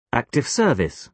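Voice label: "active service", British English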